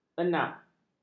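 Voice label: "enap", Malay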